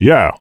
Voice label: Male "Yeah"; blastmorph pinball; xbox series xs

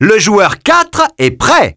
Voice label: French - dialogue, Player ready

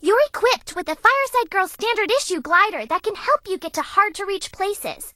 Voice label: English Voice

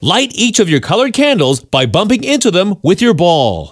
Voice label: dialogue, hamster

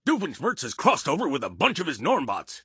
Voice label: English Voice

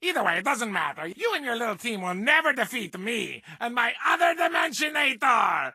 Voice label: English Voice

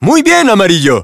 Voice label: Spanish - dialogue, Game Results Winner

